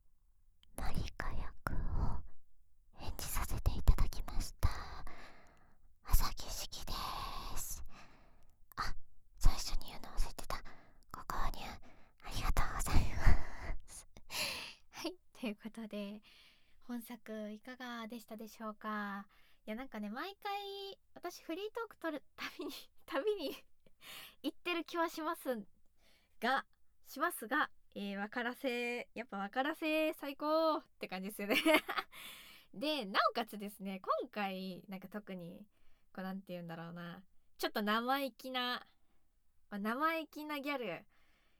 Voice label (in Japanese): フリートーク, ASMR; SEなし